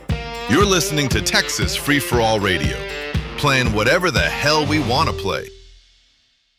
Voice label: sweeper